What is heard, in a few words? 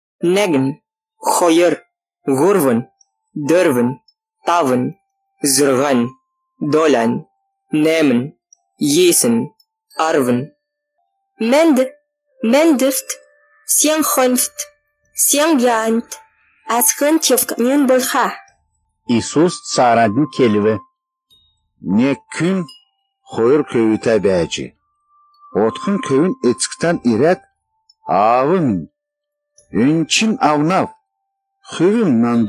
halmic new dialect, Oirat language